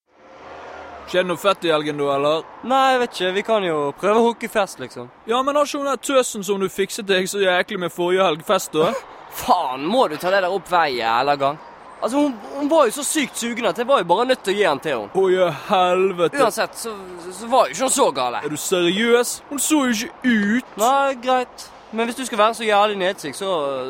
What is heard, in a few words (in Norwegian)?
dialog mellom to ungdommer fra loddefjord